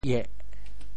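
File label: "ieh4", Teochew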